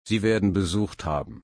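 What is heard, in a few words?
"besuchen", German, slow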